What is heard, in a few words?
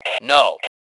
"No", male; T2 Male 03